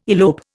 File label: "elope", Indian